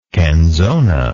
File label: "canzona", English